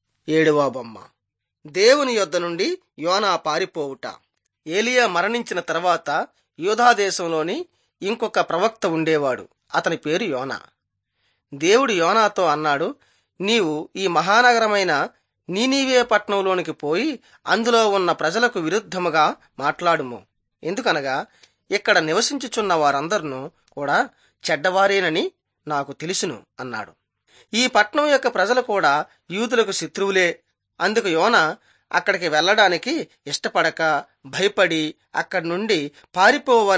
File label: telugu bangladesh; on trial for god